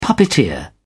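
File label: "puppeteer", British English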